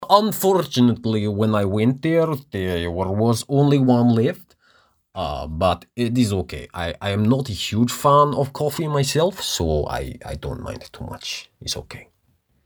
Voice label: Accent Character Russian

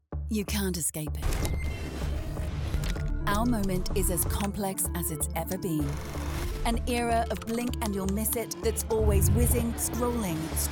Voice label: Australian

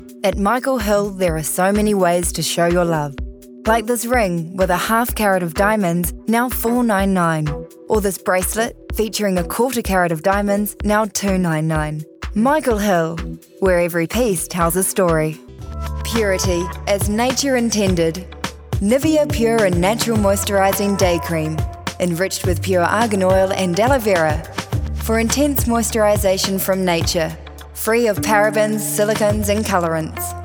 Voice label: HUSKY, en-NZ

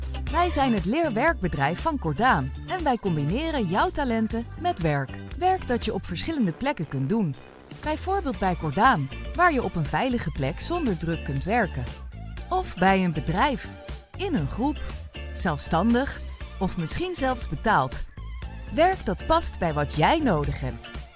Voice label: Corporate promotional; Cordaan

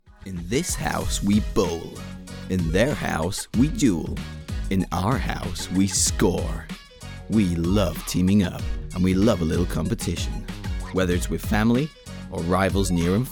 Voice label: American Accent

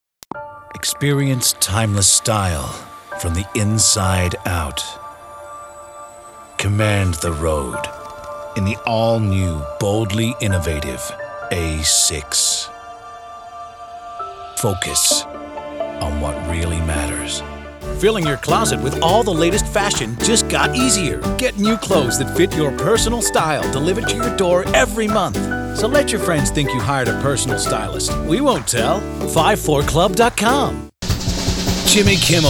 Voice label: USA

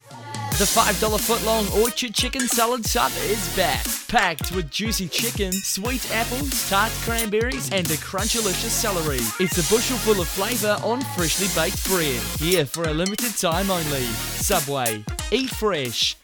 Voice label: AD; SUBWAY

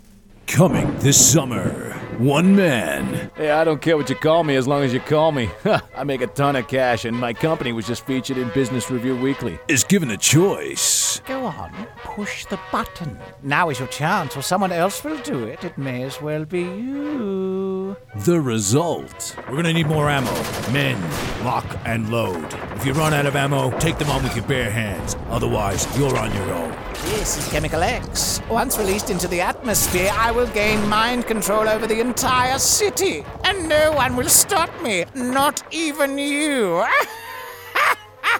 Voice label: CHARACTER VOICE ANIMATION

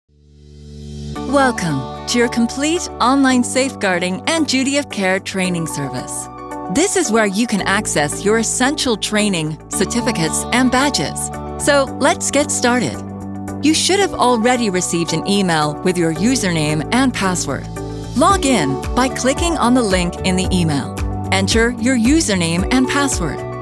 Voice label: American E-learning

